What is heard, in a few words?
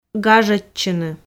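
"гажодчыны", Komi, female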